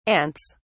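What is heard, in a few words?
"ants", British English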